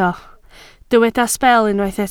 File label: "sbel", Welsh